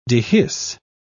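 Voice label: "dehisce", British English, dictionary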